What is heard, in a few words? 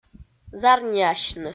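"зарниасьны", Komi, female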